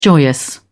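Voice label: "joyous", British English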